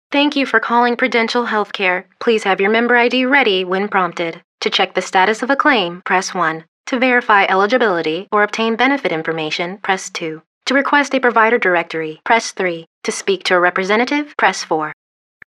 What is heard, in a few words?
Phone-Hold Female